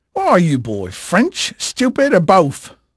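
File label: English male, voice; Pirates Of The Caribbean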